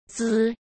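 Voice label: "兹" Mandarin Chinese; hsk; chinese-flashcards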